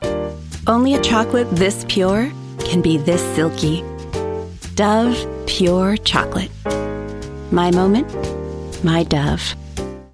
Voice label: Spot; Dove Chocolate